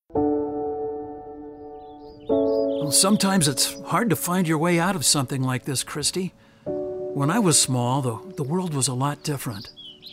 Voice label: Animation; movie-trailer